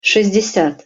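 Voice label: "sest desjat", Russian pronunciation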